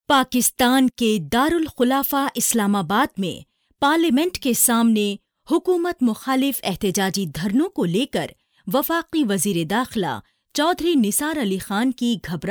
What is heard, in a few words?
female urdu voice over narrative